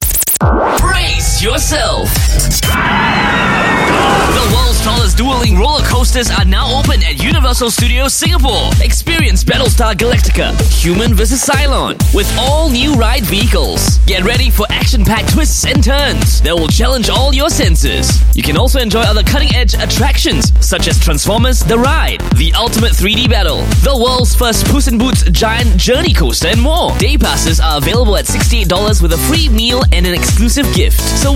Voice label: Asian English Trailer; RWS; Battlestar Galatica

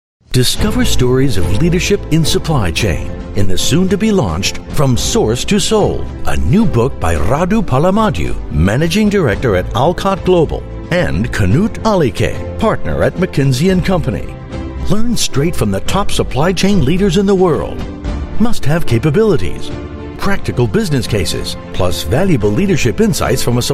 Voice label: US English; From Source to Sold

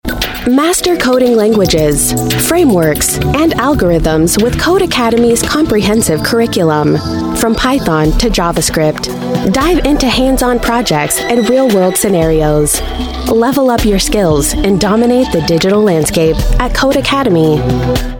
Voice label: US English; CodeAcademy

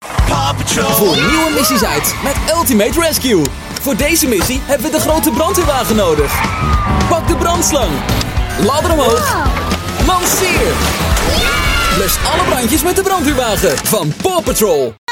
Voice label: Dutch TVC; Paw Patrol

